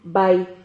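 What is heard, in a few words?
"bay", Spanish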